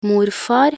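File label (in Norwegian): "morfar", Norwegian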